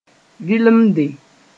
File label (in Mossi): "vɩlemde", moore